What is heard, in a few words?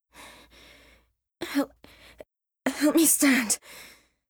Female; Skyrim Special Edition; Dawnguard Beleval